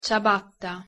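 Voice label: "ciabatta" Italian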